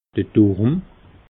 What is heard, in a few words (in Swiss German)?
"durm", alsace